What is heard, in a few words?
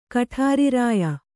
"ಕಠಾರಿರಾಯ", kannada